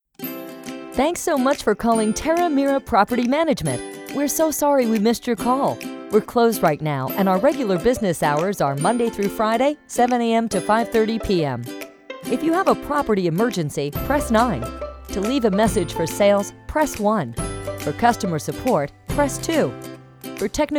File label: IVR; Terra Mirra